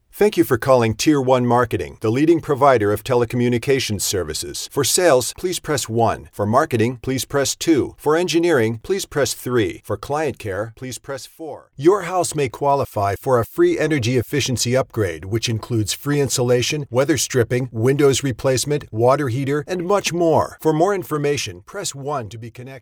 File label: Voicemail